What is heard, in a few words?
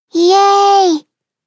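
start, Japanese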